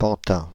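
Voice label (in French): "Pantin", French, Paris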